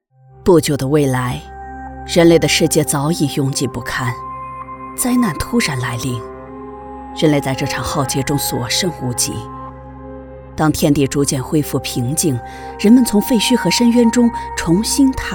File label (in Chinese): 女 未来末世科技旁白; 剧本杀